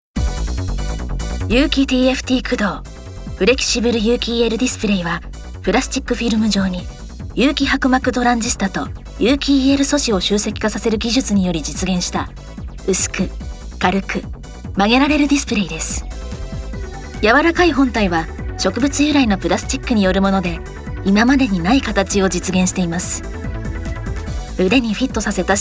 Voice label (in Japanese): ナレーション